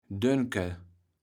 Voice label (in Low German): "Dönken", kaevels platt